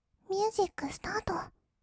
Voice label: ミュージックスタート, kawaii voice; 音ゲー

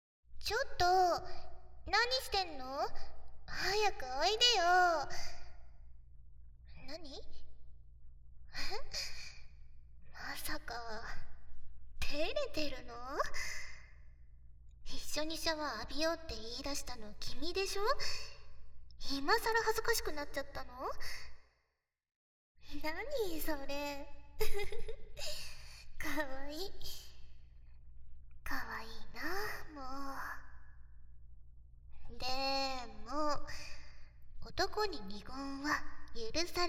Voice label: 癒しの両耳舐め, ASMR; SEなし

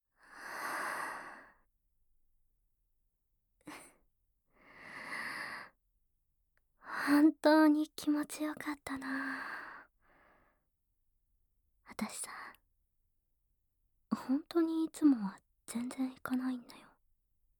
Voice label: ピロートークで蕩けて囁きながら誓いのキス

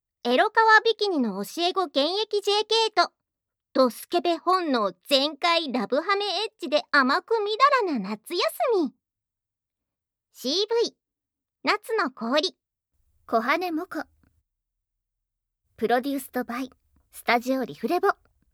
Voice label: タイトルコール, ASMR

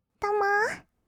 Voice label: "どもー", kawaii voice; 挨拶

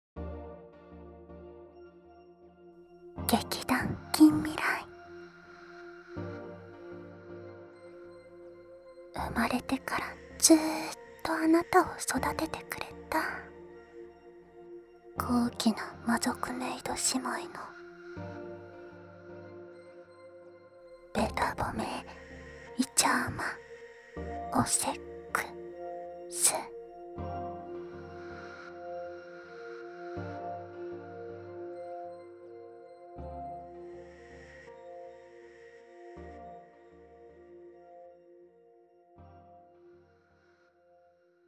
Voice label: タイトルコール; 【GKSD012】生まれてからずっと貴方を育ててくれた高貴な魔族メイド姉妹のベタ褒めイチャ甘おセックス♪

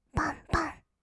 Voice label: "ばんばん", kawaii voice; 1200 kawaii voice!!; 色々なワンショット

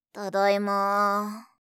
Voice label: 「ただいま」(哀), あいさつ系, ASMR

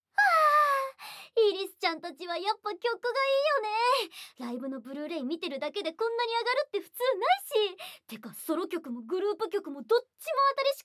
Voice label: オタク特有早口ボイス

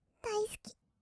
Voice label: "だいすき", kawaii voice; ASMR; 色々なワンショット

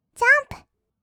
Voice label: "ジャンプ", kawaii voice; 音ゲー

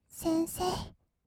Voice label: "先生", kawaii voice; ASMR; 二人称; 1200 kawaii voice!!

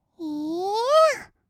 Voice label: いーーーぇあ, kawaii voice; ASMR; 1200 kawaii voice!!; 音ゲー

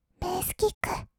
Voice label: "ベースキック", kawaii voice; ASMR; 1200 kawaii voice!!; 音ゲー

